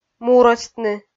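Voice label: "мурӧстны", Komi, female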